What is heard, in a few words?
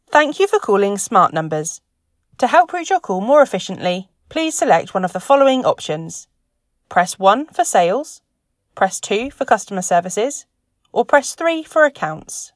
Example IVR